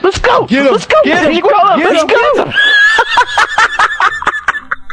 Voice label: lets go lets go laugh